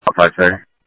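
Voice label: Lakes Sheriff Lake Patrol, scanner audio trunk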